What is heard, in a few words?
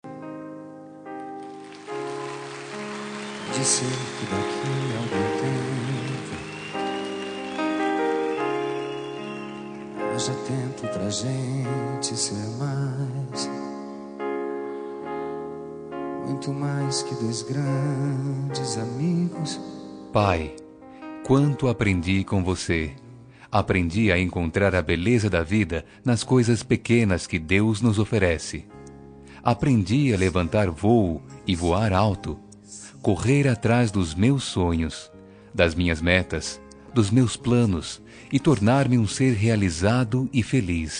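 male; homen pai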